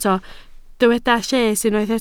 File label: "lles", Welsh